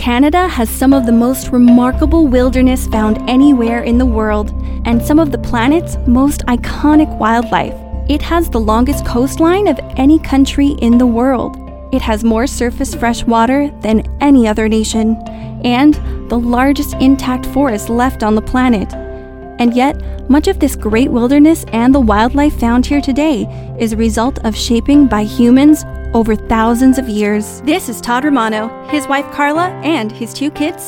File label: Narration VO